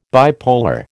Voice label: "bipolar", American

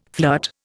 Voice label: "flirt", Indian

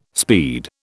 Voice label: "speed", British

